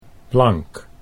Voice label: "plank", Dutch

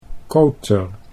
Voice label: "koter", Dutch

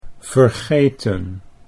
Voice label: "vergeten", Dutch

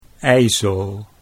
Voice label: "ijzel", Dutch

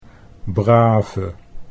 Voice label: "brave", Dutch

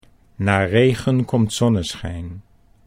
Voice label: "na regen komt", Dutch

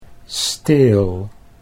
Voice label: "steel", Dutch

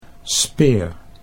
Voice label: "speer", Dutch

